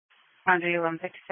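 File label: Police Patrol Dispatch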